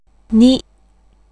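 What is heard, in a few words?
Japanese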